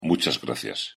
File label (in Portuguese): pronuncia espanhol muchas gracias